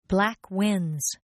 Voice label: Croupier Black Wins, Casino; Casinos